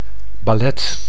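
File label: "ballet", Dutch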